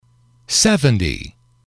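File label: "seventy", English, male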